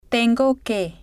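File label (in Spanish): tengo que, Spanish, female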